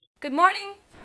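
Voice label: Voice Acting Spanish Female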